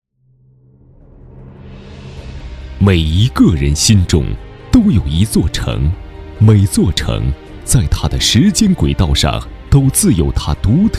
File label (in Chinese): 男 专题; 一座城（片段）